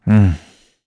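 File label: Vox Deny, Korean; Lusikiel; kingsraid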